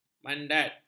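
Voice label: "mandat", Malay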